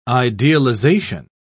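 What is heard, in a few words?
"idealisation", British English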